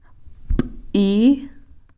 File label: "p'ii", Cow Creek; fire